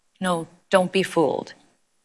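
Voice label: Voice Acting es Female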